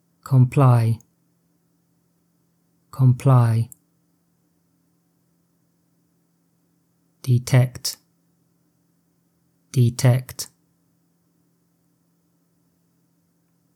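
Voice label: advanced c1 noise cameras audio, EFL & ESL Listening; UK trial modified cameras to end noisy vehicles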